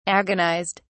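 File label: "agonised", British English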